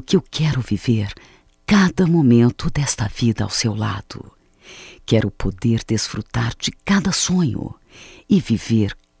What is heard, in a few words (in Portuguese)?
Doce Amor Feminino, Só Voz; Telemensagens; MOMENTOS DE AMOR